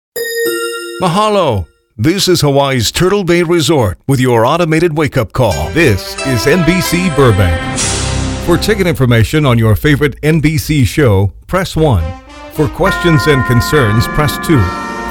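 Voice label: US, male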